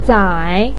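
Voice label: "zai3" Chinese syllable, pinyin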